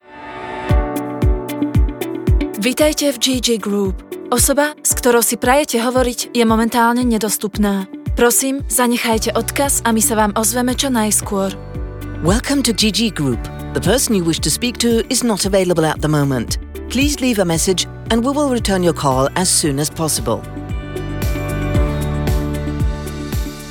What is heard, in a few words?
cell phone; SK; GG Group